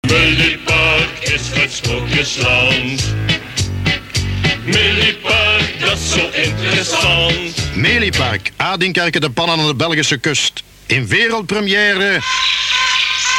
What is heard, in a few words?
commercial